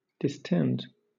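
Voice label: "distend", British English; pronunciations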